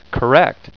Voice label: "correct", Software of the Month Club (Children's)